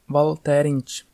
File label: welcome livonian